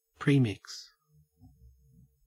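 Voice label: "premix", Australian English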